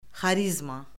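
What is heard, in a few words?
"xarizma", Azerbaijani; Orfoqrafiya Lüğəti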